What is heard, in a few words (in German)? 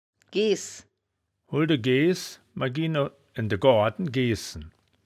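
"gees", wittlicher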